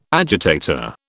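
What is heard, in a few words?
"agitator", British English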